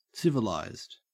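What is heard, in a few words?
"civilised", English, Australian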